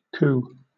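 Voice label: "coo", British English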